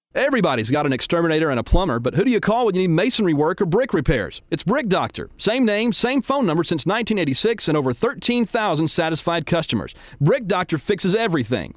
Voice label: Radio Commercial; WBAP